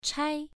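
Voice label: "chai" Mandarin, first tone, pronunciation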